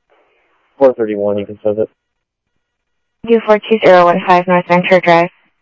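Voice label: Sheriff West County, scanner audio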